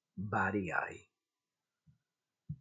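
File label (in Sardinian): "Bariai", Sardinian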